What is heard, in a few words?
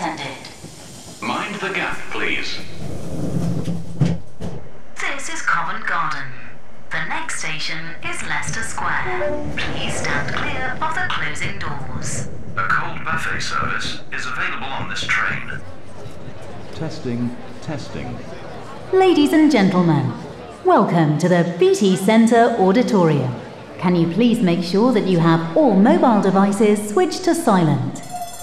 PA Announcement Showreel